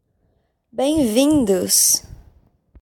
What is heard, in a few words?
Portuguese Welcome